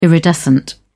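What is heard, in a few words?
"iridescent", English, British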